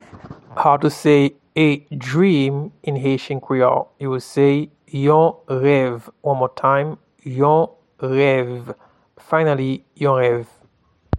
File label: A dream in Haitian Creole, Yon rev